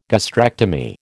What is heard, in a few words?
"gastrectomy", American